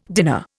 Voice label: "dinner", Indian